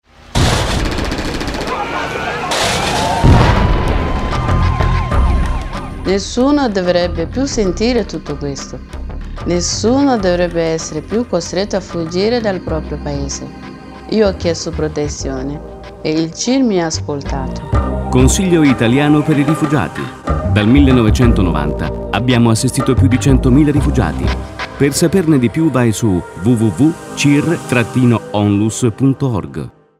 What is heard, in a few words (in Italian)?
spot radio; ora guarda me; CIR